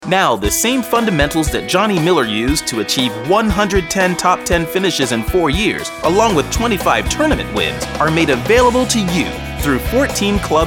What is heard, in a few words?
Infomercial